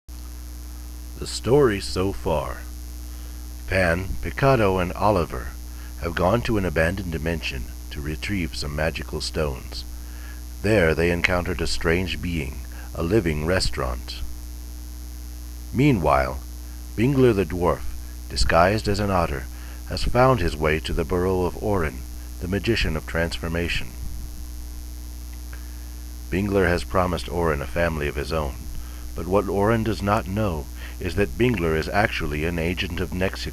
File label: narrator